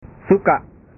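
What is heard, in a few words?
"suka", Indonesian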